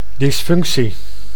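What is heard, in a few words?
"dysfunctie", Dutch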